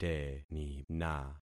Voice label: "tenina", concat syllables; Affixing experiment

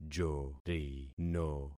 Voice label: "jorino", concat syllables; Affixing experiment

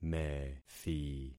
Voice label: "methi", concat syllables; Affixing experiment

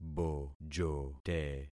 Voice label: "bojote", concat syllables; Affixing experiment

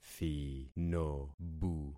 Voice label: "thinobu", concat syllables; Affixing experiment

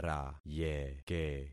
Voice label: "rayeke", concat syllables; Affixing experiment

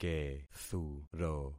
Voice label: "kethuro", concat syllables; Affixing experiment

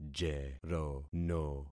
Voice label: "jerono", concat syllables; Affixing experiment

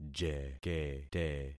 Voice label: "jekete", concat syllables; Affixing experiment

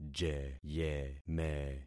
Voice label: "jeyeme", concat syllables; Affixing experiment

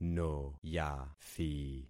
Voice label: "noyathi", concat syllables; Affixing experiment

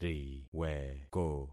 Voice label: "riweko", concat syllables; Affixing experiment

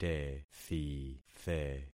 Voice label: "tethithe", concat syllables; Affixing experiment

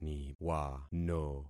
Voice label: "niwano", concat syllables; Affixing experiment

